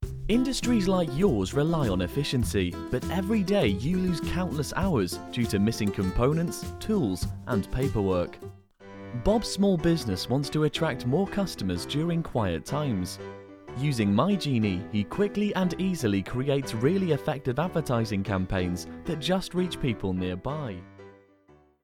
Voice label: commercial explainer